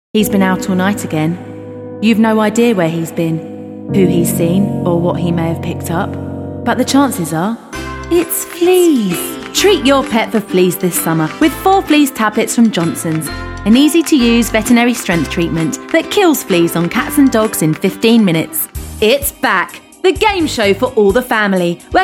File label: Commerial Montage